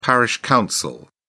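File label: "parish council", British English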